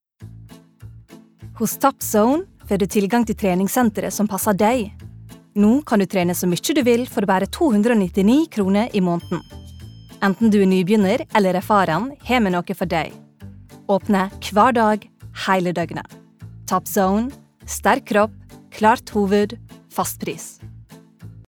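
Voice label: Norwegian advert; female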